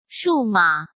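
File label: "数码", Mandarin Chinese; chinese-flashcards; hsk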